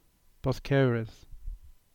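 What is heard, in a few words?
"Boskerris", Cornish; place-names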